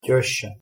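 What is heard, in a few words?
"tesca", Russian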